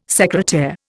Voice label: "secretaire", Indian